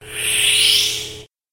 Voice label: monster specter